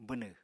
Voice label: "bwnw", Apatani

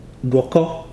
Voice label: "to spill out", Ibibio

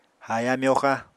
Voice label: "haaya-myoxa", apatani

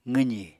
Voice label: "qwzì", Apatani

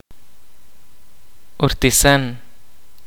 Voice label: Blessed, kaqchikel

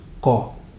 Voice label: "collect", Ibibio

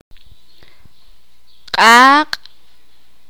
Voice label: "fuego", sakapulteko

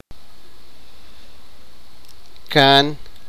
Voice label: sipakapense; father's younger brother

